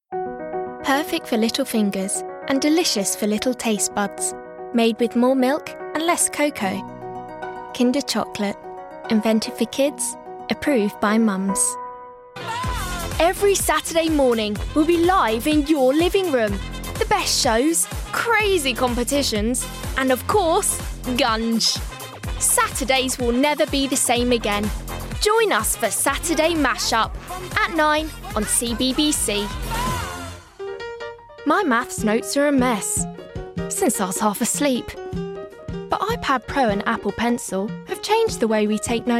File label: Commercials